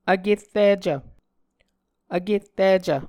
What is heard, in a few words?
"vkerricv", Muscogee